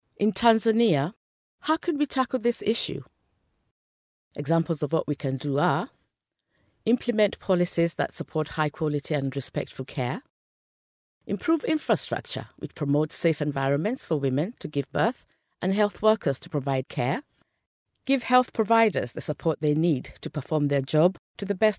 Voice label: female african voice over narrative, african english